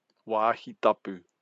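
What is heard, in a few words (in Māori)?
"wahi tapu", Māori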